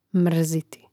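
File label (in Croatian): "mrziti" Croatian; gl. nesvrš.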